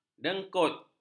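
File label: "dengkut", Malay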